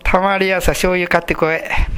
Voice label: "tamariya", minamiyamagata

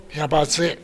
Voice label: "yabatui", minamiyamagata

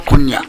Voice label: "konnya", minamiyamagata

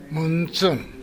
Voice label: "muntun", minamiyamagata

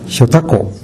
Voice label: "yotako", minamiyamagata

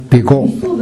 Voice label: "bego", minamiyamagata

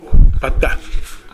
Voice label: "patta", minamiyamagata

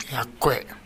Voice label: "yakkoe", minamiyamagata; やわらかい。

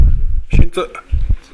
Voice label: "sentu", minamiyamagata

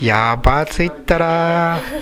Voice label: "yabatui", minamiyamagata

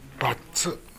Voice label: "battu", minamiyamagata

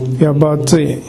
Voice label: "yabatui", minamiyamagata

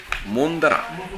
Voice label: "mondara", minamiyamagata

